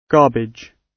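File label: "garbage", British English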